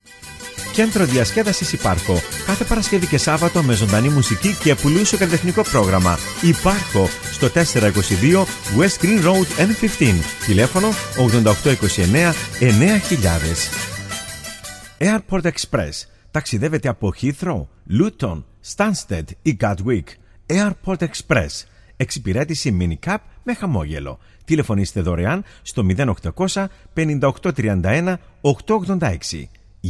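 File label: Greek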